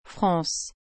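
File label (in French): "France", French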